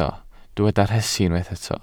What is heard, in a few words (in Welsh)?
"rhesi", Welsh